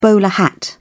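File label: "bowler hat", English, British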